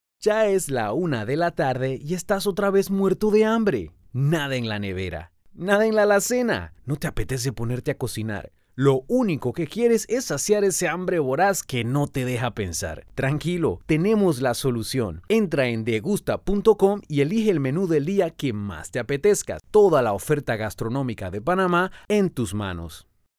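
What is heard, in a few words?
Spanish Americas, male, voice over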